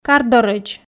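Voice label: "кардорӧдз", Komi, female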